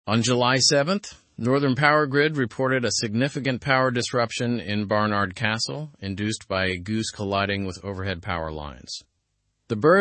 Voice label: learn english through news advanced normal; goose disrupts power supply in barnard castle